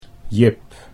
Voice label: "Jip", Dutch

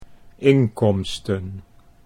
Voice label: "inkomsten", Dutch

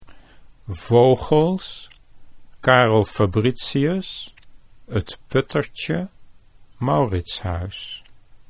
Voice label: "vogels", Dutch

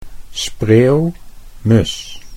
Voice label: "spreeuw", Dutch

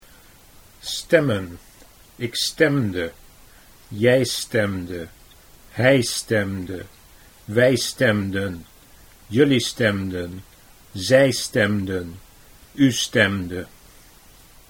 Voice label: "stemmen ik stemde", Dutch

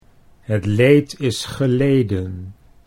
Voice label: het leed is geleden, Dutch; 'The suffering is over'

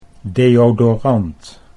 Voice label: "deodorant", Dutch

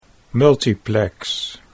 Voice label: "multiplex", Dutch

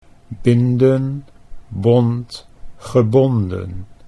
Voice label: binden bond gebonden, Dutch

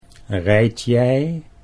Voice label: "rijd jij", Dutch

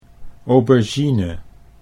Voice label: "aubergine", Dutch

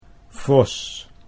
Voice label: "vos", Dutch

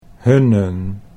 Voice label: "Hunnen", Dutch

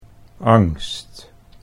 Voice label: "angst", Dutch

